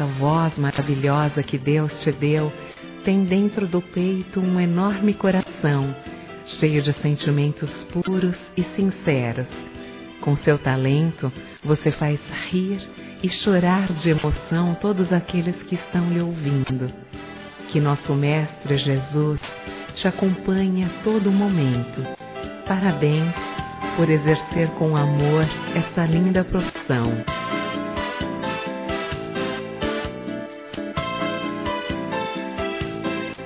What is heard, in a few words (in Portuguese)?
LOCUTORA F; fem